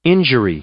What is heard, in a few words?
"injury", English; noun